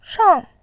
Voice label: "shang4", voice